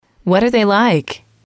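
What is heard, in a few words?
"what are they like", English, female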